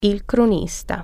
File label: reporter, italian